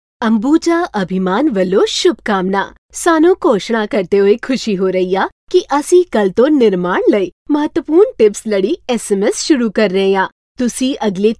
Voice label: punjabi voiceover locutora